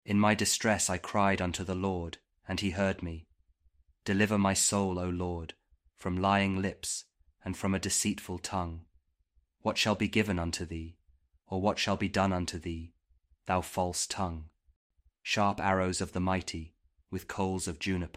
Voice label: psalm kjv king james audio bible